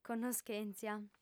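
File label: "connoschèntzia", female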